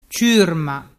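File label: "ciurma", Vernazzese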